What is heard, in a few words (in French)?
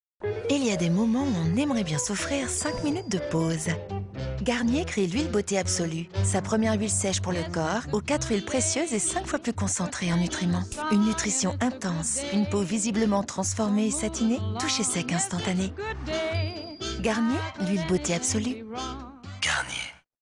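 Mutine complice, comédienne voix off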